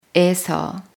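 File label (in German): "von Ort", German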